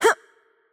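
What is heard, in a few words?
Attack Light, Polish; pl PL; bloom minigame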